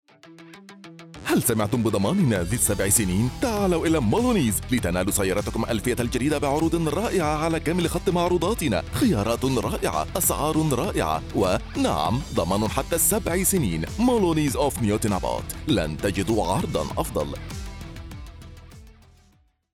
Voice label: arabic automotive

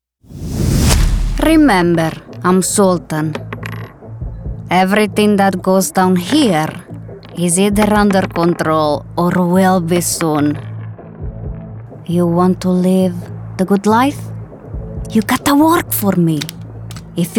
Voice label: English others

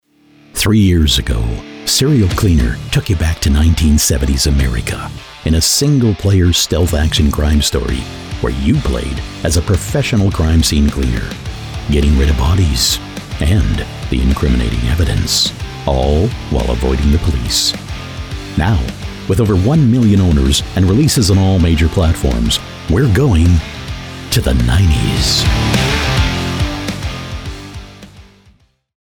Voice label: english american movie trailers

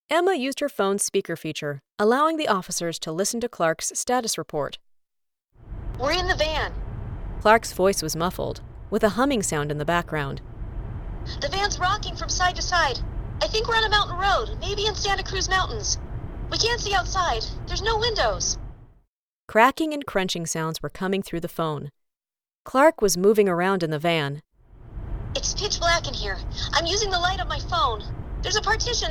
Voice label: english american audiobooks

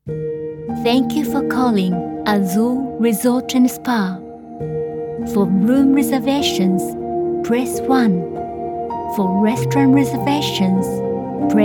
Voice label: English IVR

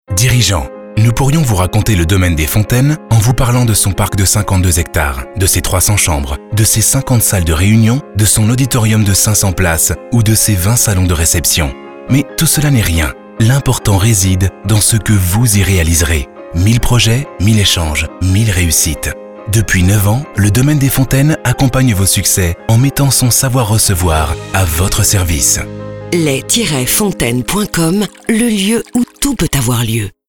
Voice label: french political ads

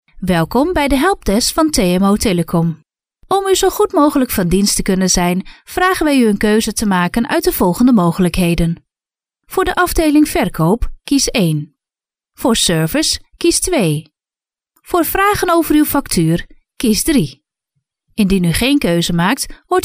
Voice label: dutch ivr

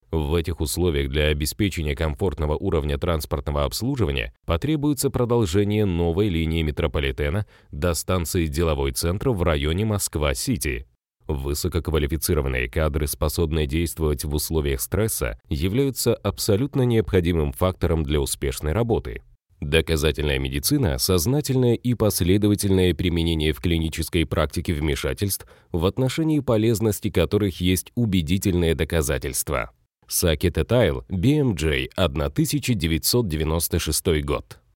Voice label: russian documentaries

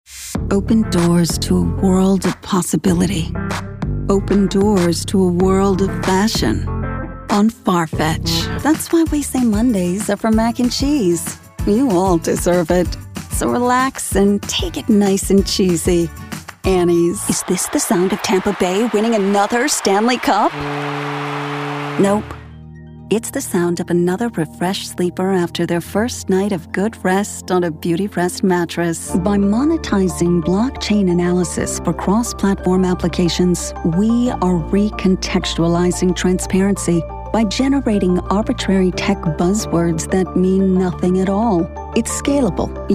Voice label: english american commercial; United States; your basic American girl-next-door

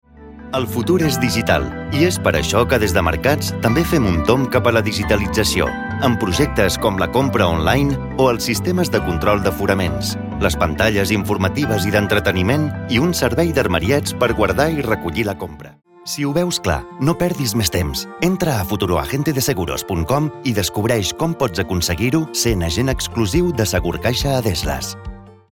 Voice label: catalan corporate videos; espanhol da Espanha e catalão

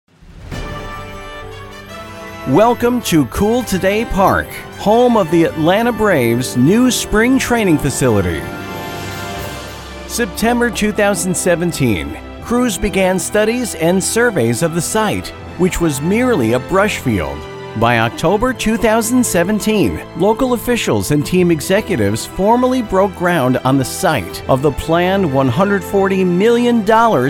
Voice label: english american documentaries

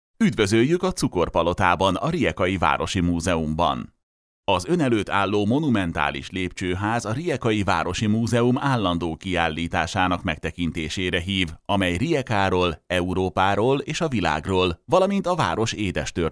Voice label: Hungarian audio guides

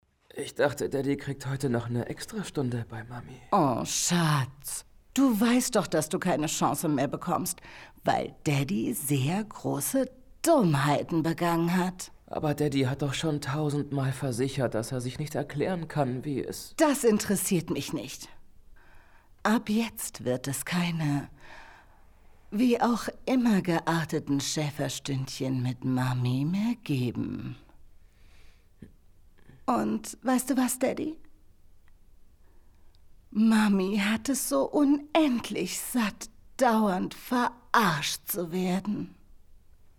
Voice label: german others

